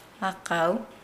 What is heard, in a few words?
Nukuoro; reef